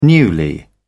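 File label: "newly", British English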